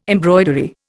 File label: "embroidery", Indian